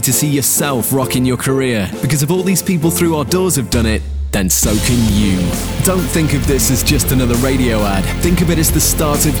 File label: University and College Voiceovers; voice examples